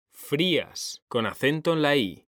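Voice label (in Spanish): "frías", Spanish; mekanta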